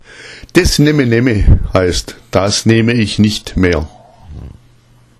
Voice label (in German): "nemme nemme", schwaebisch